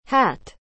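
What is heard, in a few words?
"ash", English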